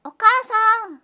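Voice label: "okaasan", Japanese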